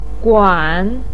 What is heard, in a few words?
"guan3", Chinese pinyin voice